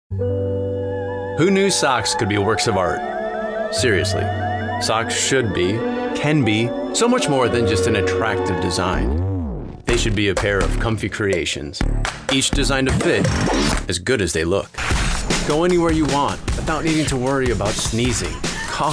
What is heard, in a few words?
commercial